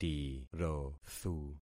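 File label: "tirothu", concatenated syllables; Affixing experiment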